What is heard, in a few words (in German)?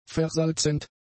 "versalzend", german; adjectives